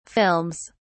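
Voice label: "films", British English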